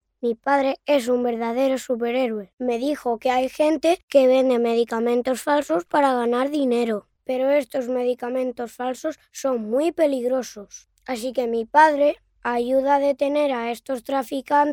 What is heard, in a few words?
locutor nino spanish child boy voiceover